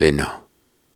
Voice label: Soft Syllables and Imaginary Words, Viking Vocal